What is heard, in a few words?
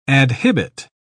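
"adhibit", British English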